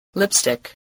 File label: "lipstick", spelling